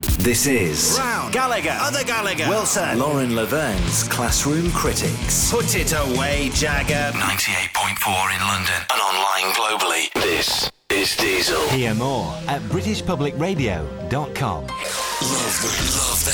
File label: Radio Imaging